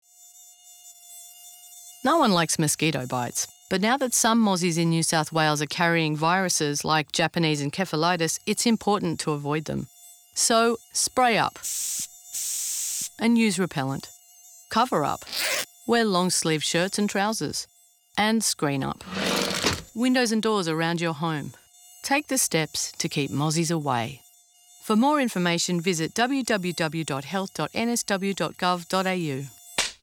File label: radio; mosquito-borne